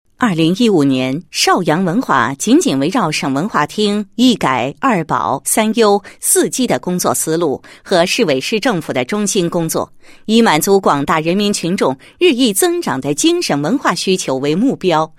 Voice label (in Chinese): 女-工作汇报-文化惠民 成果共享文化工作回顾, 配音案例